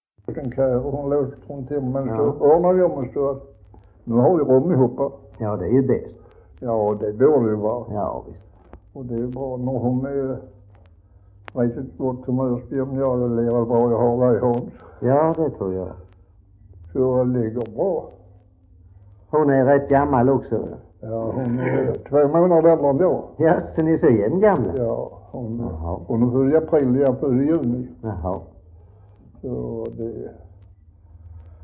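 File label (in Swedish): Västra Ingelstad Skåne, dialekter; Folkminnen; Svenska sagor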